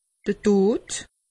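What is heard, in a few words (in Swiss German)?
"tot", Alsatian; medecine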